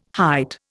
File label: "height", Indian